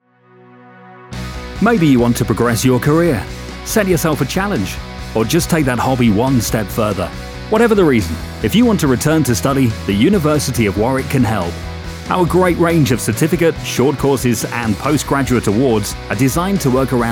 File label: radio commercial